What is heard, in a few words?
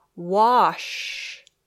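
"wash", English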